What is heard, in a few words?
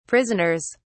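"prisoners", British English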